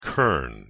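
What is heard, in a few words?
"krn", Proto-Indo-European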